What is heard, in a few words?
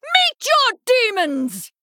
Female Blood Elf Attack